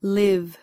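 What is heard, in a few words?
"live", US English; pronunciations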